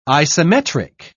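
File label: "isometric", British English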